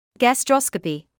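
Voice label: "gastroscopy", British English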